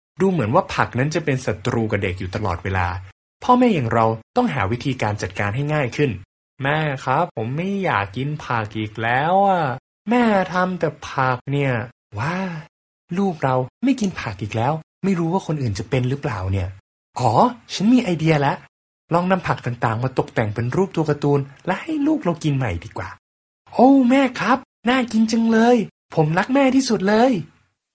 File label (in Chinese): 泰语男声